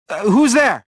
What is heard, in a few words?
suspicious, prisoner